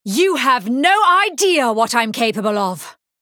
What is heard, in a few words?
Female Human Play